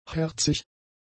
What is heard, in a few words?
"herzig", German; adjectives